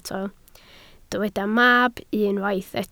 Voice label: "mab", Welsh